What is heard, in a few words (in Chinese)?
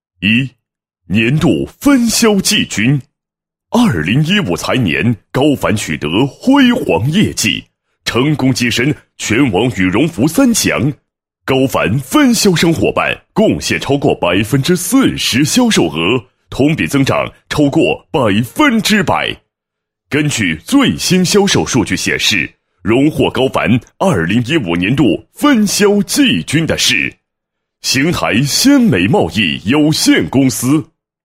男 颁奖词 年度分销颁奖词; 2015年度分销颁奖词; 高梵2015年度分销颁奖词